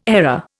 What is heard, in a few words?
"error", Indian